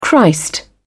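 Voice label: "christ", British English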